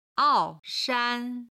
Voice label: "ao4 shan1", Chinese

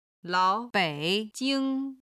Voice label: "lao3bei3jing1", Chinese

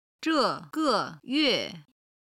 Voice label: "zhe4ge5xing1qi1", Chinese

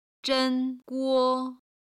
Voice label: "zhen1guo1", Chinese

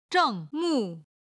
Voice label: "zheng4 mu4", Chinese

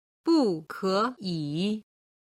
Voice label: "bu ke yi", Chinese

